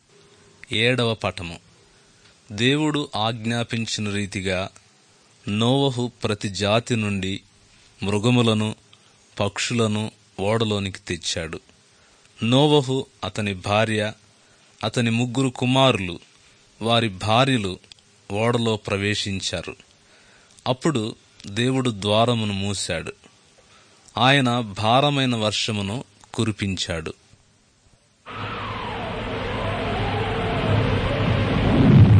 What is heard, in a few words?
good news telugu